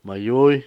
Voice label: "parentela", Cucapá

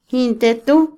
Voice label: inocente, Otomí, mujer; INALI

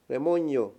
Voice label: "demonio", Mayo; Religón y creencias; INALI

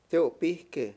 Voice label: "cura", Mayo; Religón y creencias

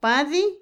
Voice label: saber, Otomí, mujer; INALI

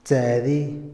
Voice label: "fuerza", Otomí, hombre; INALI

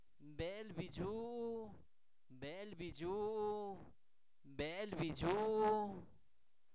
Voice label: carne frita, Zapoteco, santo domingo albarradas; alimentos; INALI

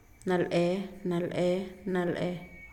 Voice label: "aguja", Pame; INALI

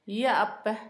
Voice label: chayote, Zapoteco, Asunción Ocotlan; Comestibles; INALI; Haspelmath

